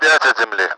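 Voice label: five ground, Russian; DCS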